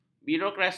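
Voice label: "birokrasi", Malay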